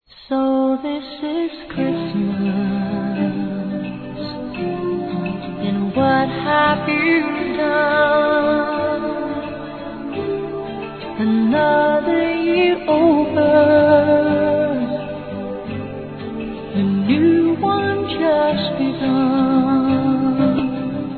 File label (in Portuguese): NATAL P FILHA, masc; Telemensagens; Natal e Ano novo